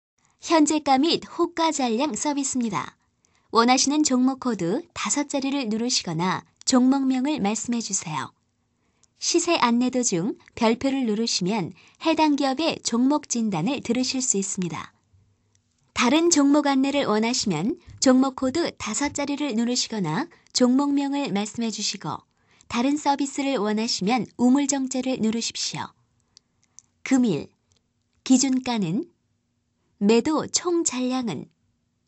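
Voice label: Korean, female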